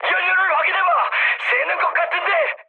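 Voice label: Korean tank damaged mood high; War Thunder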